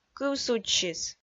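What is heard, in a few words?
"кыв судзсис" Komi, female; kpv audio dict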